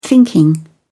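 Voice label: "thinking", British English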